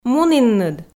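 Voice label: "мунінныд", Komi, female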